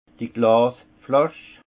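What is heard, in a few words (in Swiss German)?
"glasflasch", Haut Rhin; vin; bouteille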